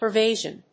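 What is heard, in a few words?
"pervasion", English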